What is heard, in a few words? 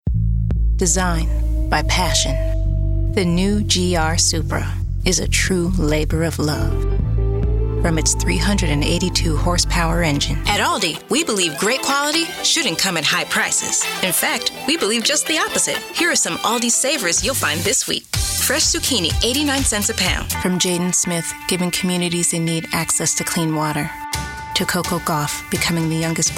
COMMERCIAL